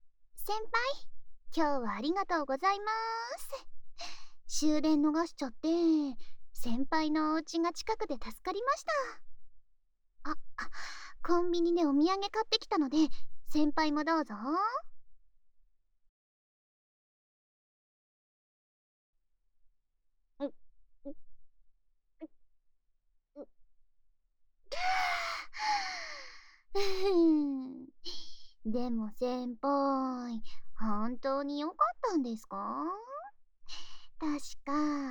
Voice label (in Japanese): 耳舐め囁き色仕掛け手コキ; 宅飲みで…; SE無し